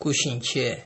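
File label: "cuchinchair", Nigerian Pidgin, male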